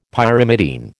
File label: "Pyrimidine", American